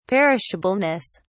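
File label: "perishableness", British English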